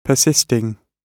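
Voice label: "persisting", British English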